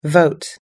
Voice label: "vote", us-english